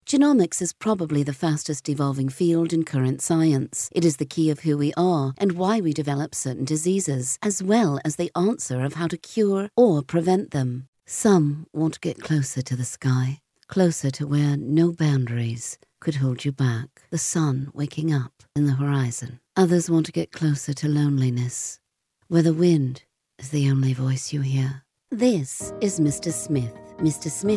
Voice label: British